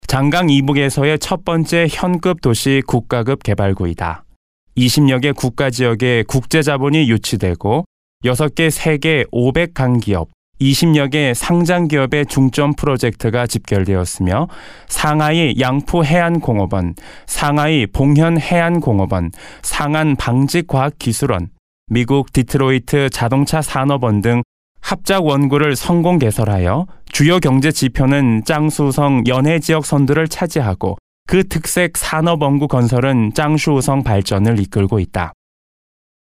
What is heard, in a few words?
MALE KOREAN